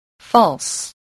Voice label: "false", voice

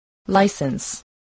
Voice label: "license", spelling

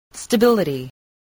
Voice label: "stability", voice

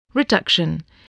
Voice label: "reduction", spelling voice

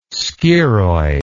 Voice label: "scirrhoid", English

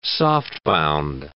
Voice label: "softbound", English

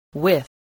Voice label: "with", voice, english

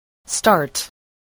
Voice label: "start", voice

